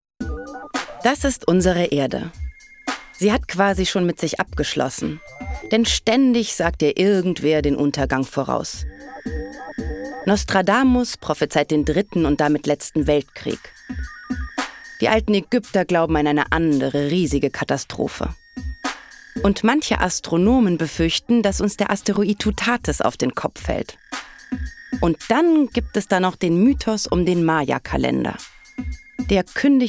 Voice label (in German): erklaervideo